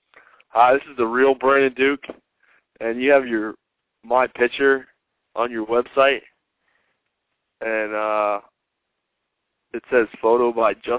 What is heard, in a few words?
voice message